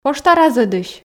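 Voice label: "пошта разӧдысь", Komi, female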